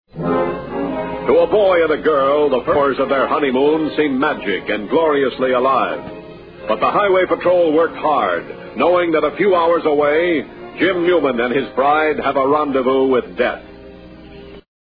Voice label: narrate